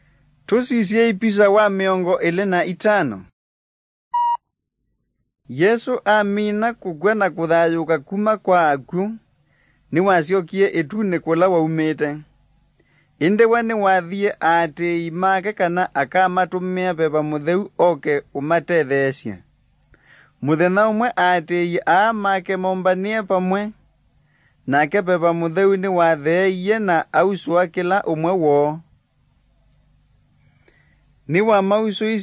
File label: kikamba drama; good news; bible